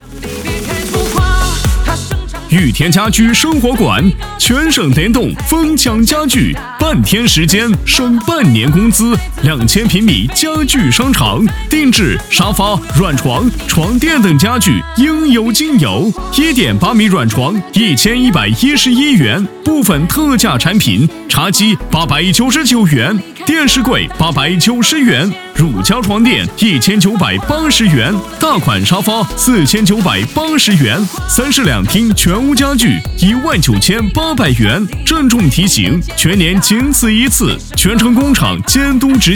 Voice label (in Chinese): 男 促销广告 激情大气; 成熟浑厚; 激情力度; 雨田家居生活馆